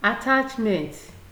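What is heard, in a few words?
"attachment", Nigerian Pidgin, female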